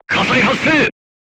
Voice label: voice message fire extinguished, crew tanks japanese